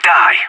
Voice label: in combat, player vo anarchist; Counter-Strike Global Offensive